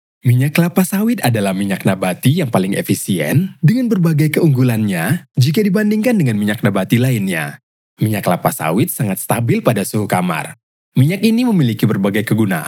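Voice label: Indonesian FORMAL, medium to deep tone that is energetic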